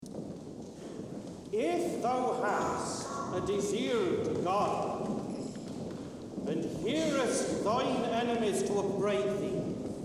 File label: Narration; what fruite of immortality